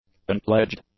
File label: "unpledged", english; adjectives